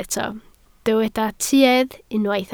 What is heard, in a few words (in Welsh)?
"tuedd", Welsh